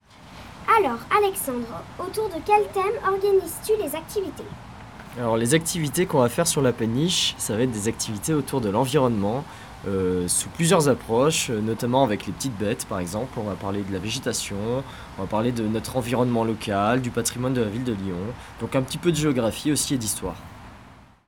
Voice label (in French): interview